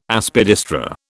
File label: "Aspidistra", British